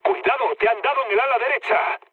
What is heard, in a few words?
right wing, mood low, speech; War Thunder